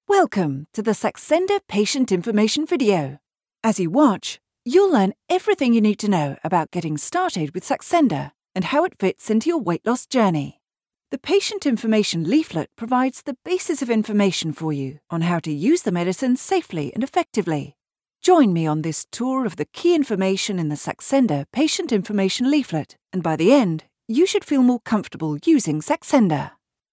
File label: eLearning; Saxenda